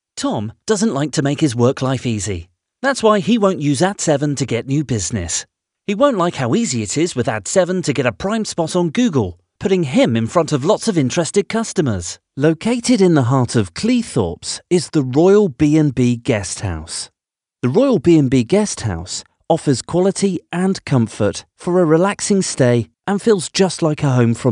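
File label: English, male; lektoring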